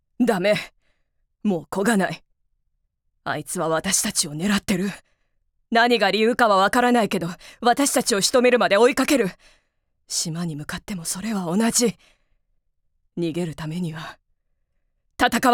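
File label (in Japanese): 強い女性, ボイスサンプル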